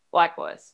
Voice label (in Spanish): Voice Acting es F0